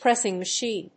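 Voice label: "pressing machine", English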